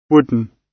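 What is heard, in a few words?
"wooden", British English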